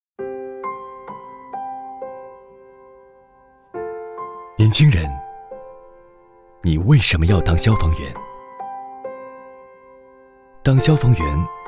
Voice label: 男 中文 走心旁白 五四青年节

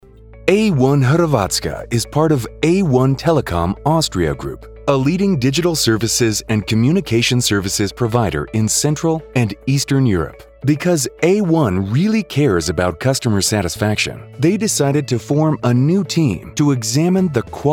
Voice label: 通讯服务公司 大气轻快宣传片

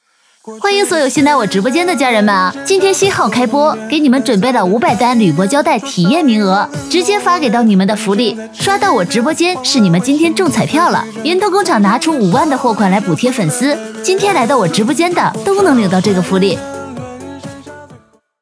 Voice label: 女 广告 直播 铝箔胶带

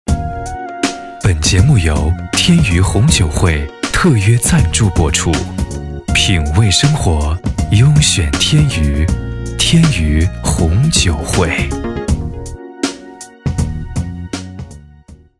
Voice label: 男-电台-温暖--时尚; 天禹红酒会

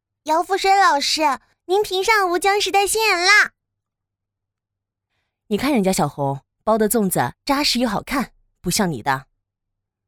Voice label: 角色女, 试音

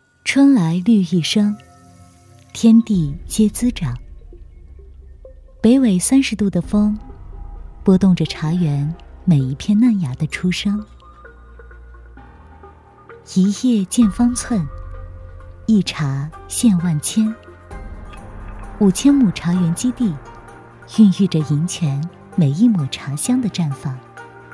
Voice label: 女 广告类 茶

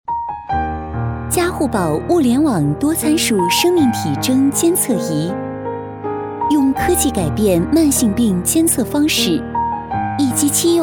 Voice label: 女 课件 说明书类 自然亲切

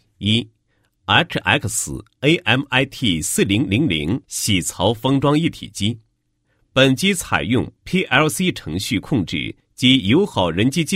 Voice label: 男 产品简介, 配音; 铣槽封装一体机简介

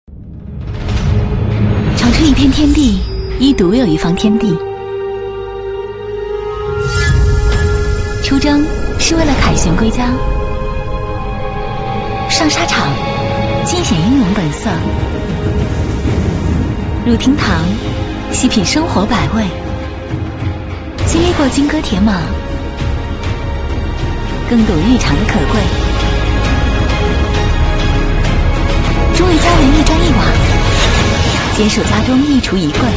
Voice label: 女 广告 TVC; 红星美凯龙; 花木兰刘亦菲版TVC

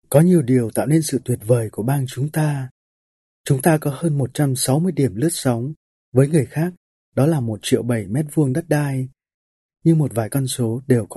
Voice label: 越南语男-干音

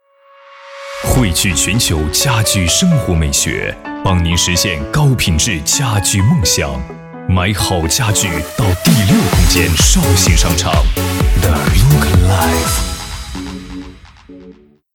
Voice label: 男 大气 抒情; 家具商场

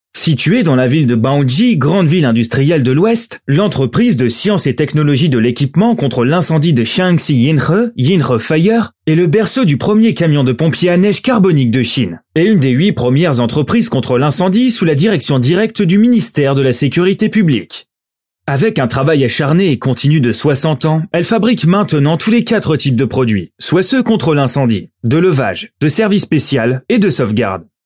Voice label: 大气公司宣传片 M

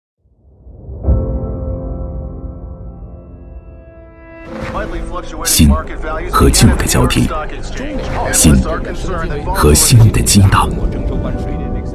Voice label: 男 广告; 易居激荡时代

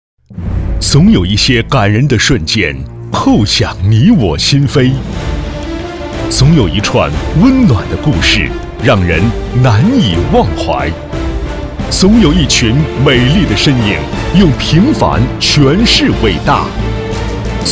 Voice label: 男 激情; 大象新闻客户端

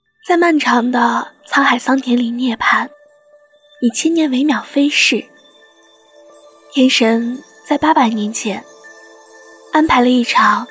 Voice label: 女 旅游风光; 金子海

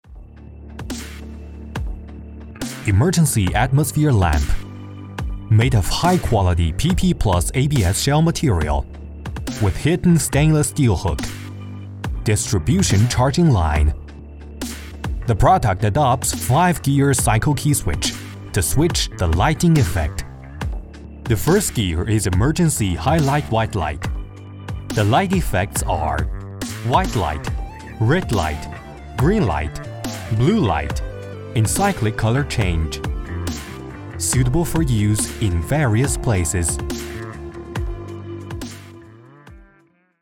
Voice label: 英男 英文广告 应急氛围灯

